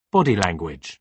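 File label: "body language", British English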